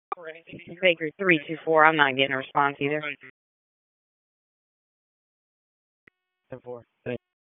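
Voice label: Raleigh Police Northeast District Dispatch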